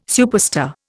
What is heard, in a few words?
"superstar", Indian